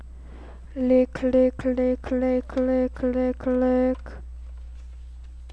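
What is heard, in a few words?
"lick", spoken word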